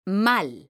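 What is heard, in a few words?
"mal", Spanish, female; mekanta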